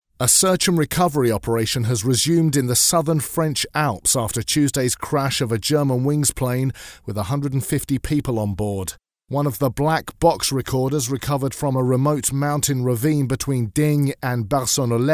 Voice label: news reporter